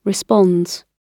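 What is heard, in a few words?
"responds", British English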